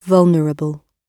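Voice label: "vulnerable", British English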